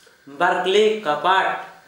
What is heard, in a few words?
two doors, Gta, Dialect: Hill; household